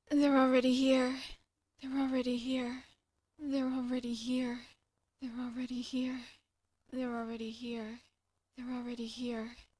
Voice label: They Are Already Here Whispered But Calm